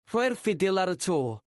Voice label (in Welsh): rhoi'r ffidil ar y to, Welsh